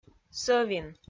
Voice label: "сӧвин", Komi

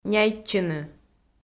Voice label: "няйтчыны", Komi

